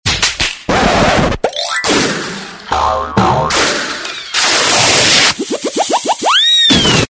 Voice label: wild cartoon accent